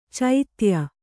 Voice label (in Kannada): "ಚೋದ್ಯ", Kannada